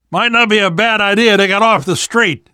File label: world hospital, namvet, survivor voice